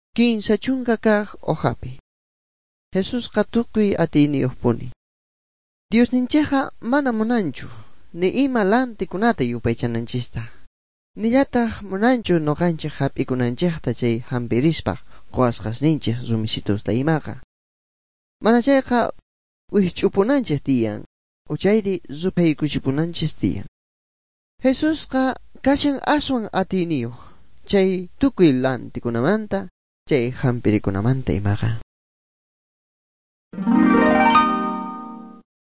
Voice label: good news quechua bolivian sucre; bible

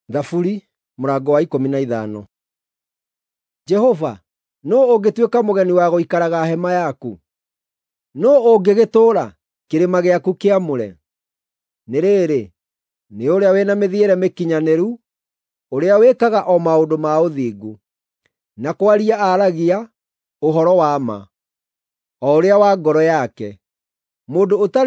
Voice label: psalms, gikuyu drama; bible

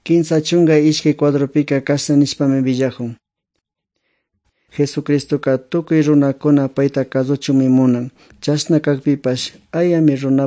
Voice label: good news quichua otovalo

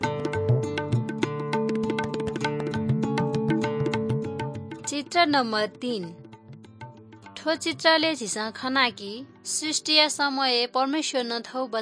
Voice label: good news newari balami

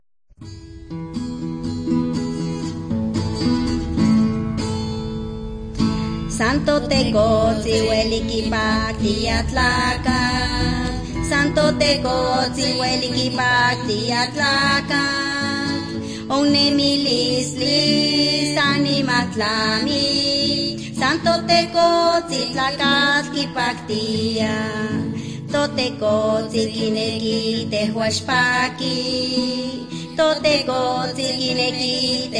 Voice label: words of life nahuatl guerrero tlapa, drama; the bible league nt

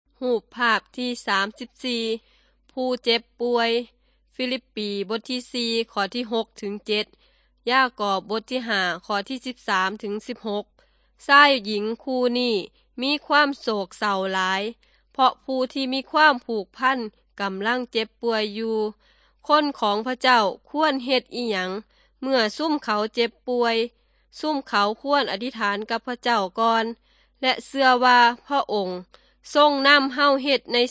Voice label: good news thai northeastern

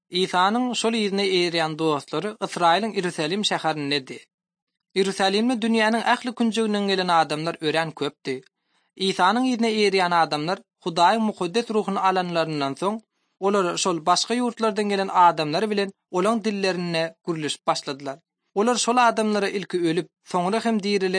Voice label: turkmen, bible translation drama; acts of the holy spirit; institute for bible translation

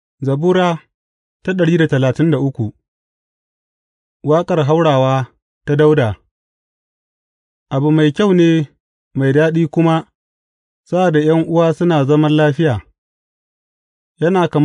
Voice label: hausa bible drama, psalms; hausa bsn nt drama

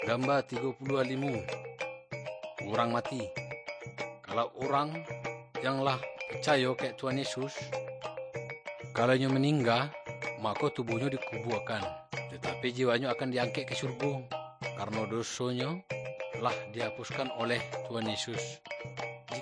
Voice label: good news minangkabau muko muko; kaba ilo

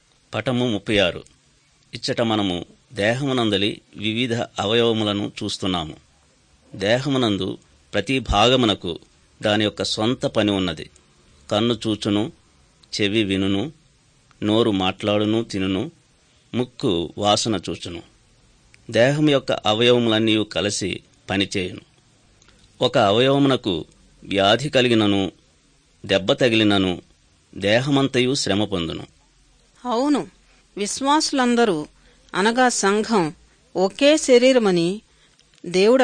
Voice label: good news telugu

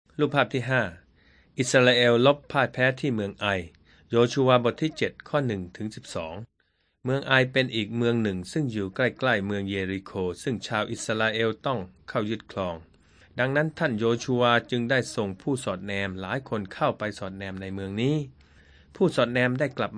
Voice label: thai central

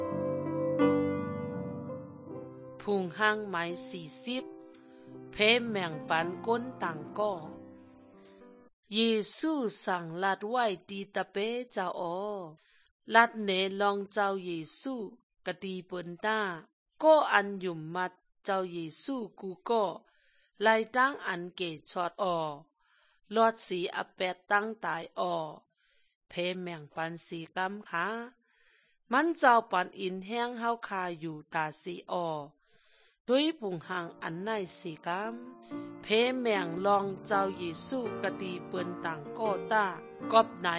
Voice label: good news shan eastern, drama

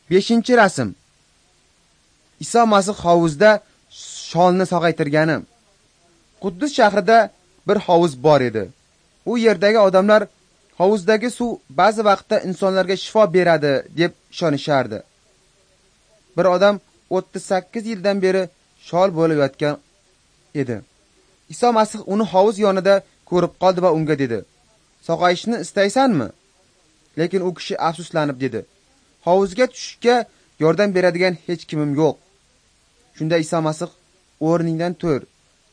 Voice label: uzbek northern

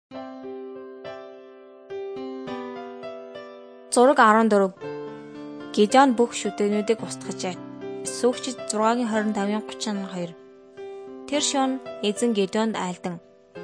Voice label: mongolian

